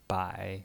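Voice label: "bai", Mandarin Chinese, third tone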